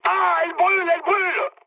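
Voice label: on fire, mood medium, French; War Thunder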